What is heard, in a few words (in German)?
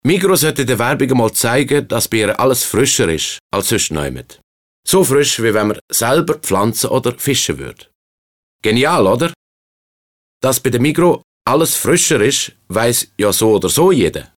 Werbung CH ZH